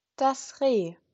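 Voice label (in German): "Reh", German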